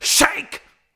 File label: "shake", shouts; Best Service; Hyper Dance